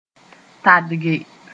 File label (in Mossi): "tadge", moore; refuse to go on